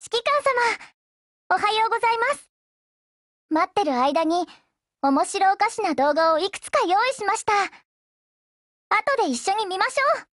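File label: HELLO Japanese, Voice; GF Alarm; Danuvia 43M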